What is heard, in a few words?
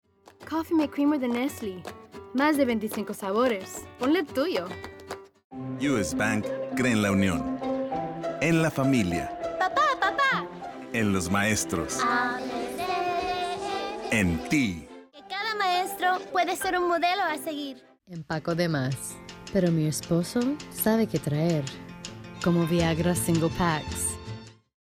Spanish Voice Over